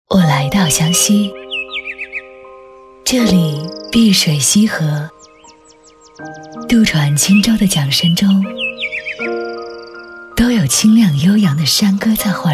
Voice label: 宣传片 城市 神秘 形象片 讲述, 女国; 湘西; 神秘湘西形象片

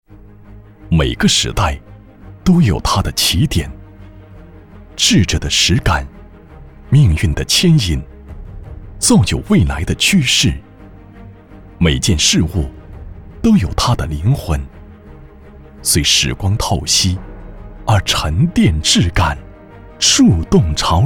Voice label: 宣传片 企业 大气, 男国; 秀邦国际

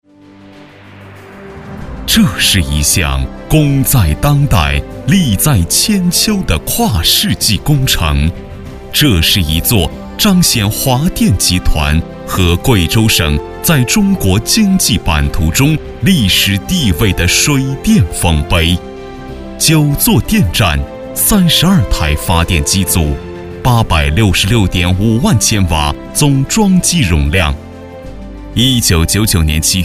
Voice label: 专题 企业 舒缓; 华电集团

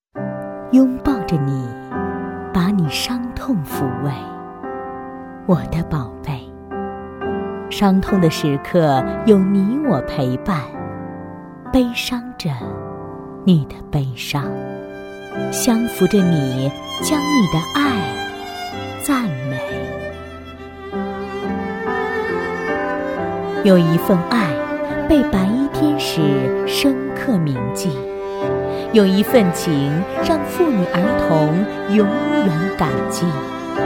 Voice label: 女 专题 人物

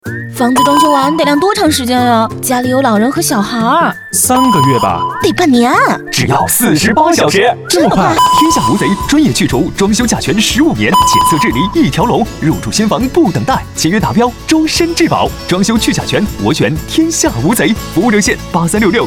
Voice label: 广告 家居家电 装修男声对播 年轻

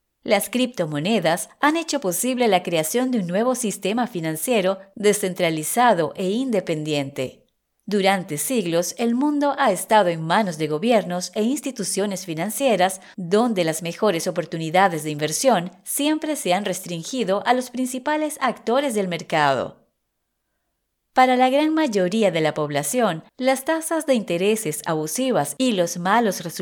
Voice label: 西班牙语女 外语 小语种

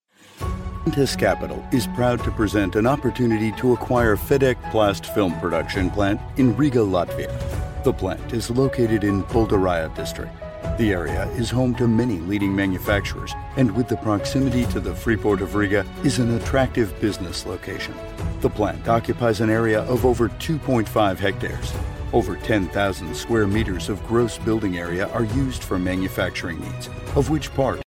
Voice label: 外籍男英 外语 美式英语 大气科技 科技

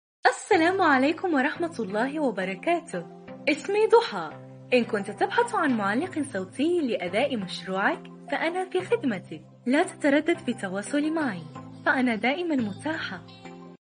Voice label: 阿拉伯语女 外语 小语种

